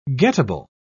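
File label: "gettable", British English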